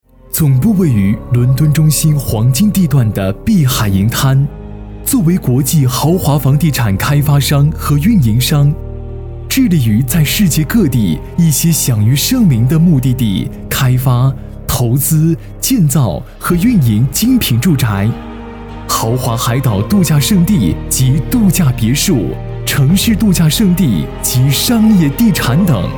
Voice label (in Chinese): 房地产大气